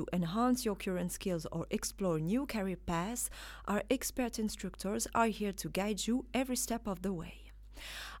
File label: Elearning course english